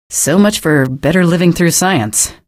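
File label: citizen remarks, female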